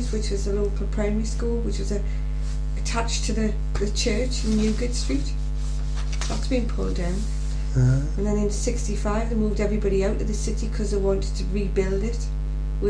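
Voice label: Newcastle older, dialects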